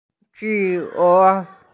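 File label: "qie", Mandarin Chinese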